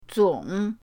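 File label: "zong", Mandarin pinyin, third tone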